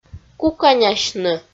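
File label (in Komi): "куканясьны", Komi-Zyrian, female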